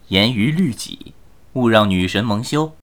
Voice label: 胜利, BattleCry, 年轻的修士; ycrpg

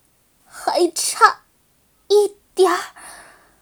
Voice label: 死亡, BattleCry; ycrpg; 薇薇安

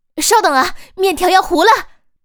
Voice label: 撤退, BattleCry; ycrpg

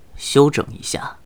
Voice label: 使用道具, 年轻的修士; ycrpg; BattleCry

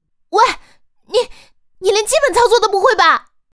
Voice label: 失败, BattleCry; ycrpg

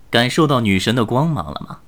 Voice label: 胜利, BattleCry, 年轻的修士; ycrpg

